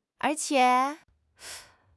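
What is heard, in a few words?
"er2 qie3", Chinese; HSK; HSK3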